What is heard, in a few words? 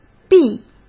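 "闭", Mandarin Chinese; chinese flashcards; hsk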